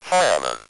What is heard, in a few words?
"fireman", Speak & Spell UK Speech